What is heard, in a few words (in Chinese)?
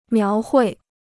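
"描绘" Chinese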